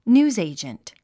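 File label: "newsagent", English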